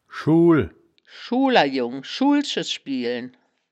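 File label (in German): "schul", wittlicher woerterbuch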